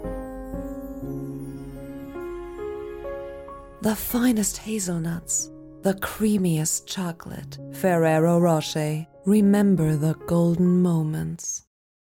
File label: commercial US English; FERRERO ROCHER